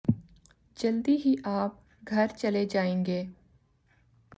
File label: Urdu